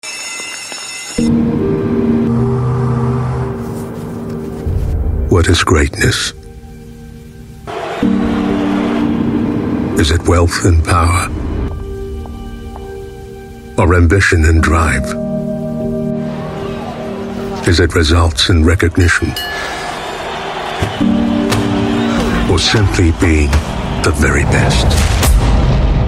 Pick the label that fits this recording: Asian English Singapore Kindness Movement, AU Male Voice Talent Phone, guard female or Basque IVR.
Asian English Singapore Kindness Movement